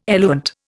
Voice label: "eluent", Indian